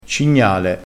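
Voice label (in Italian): "cignale", dialetto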